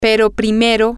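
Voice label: but first, spanish, female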